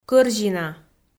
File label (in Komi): "кӧрзина" Komi